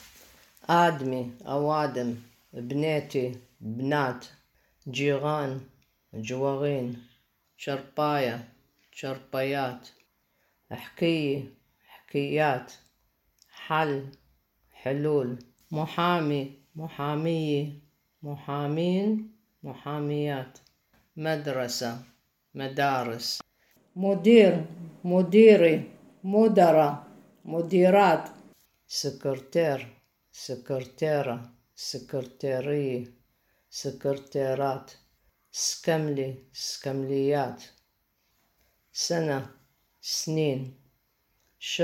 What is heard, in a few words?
Baghdadi Judeo-Arabic; Audio recording 5.2 to accompany section on Lesson 5: Work, Studies and Place of Origin; Vocabulary